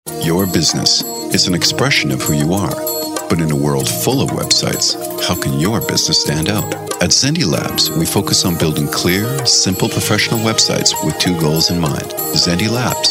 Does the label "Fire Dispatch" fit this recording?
No